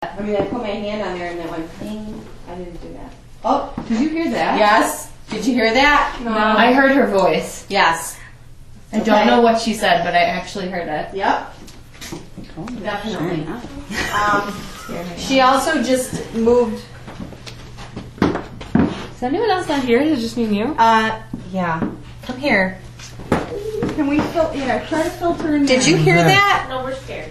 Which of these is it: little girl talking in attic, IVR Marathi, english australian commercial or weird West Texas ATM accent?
little girl talking in attic